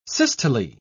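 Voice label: "systole", British English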